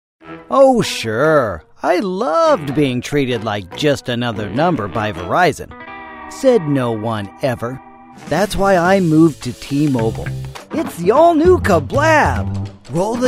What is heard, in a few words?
commercial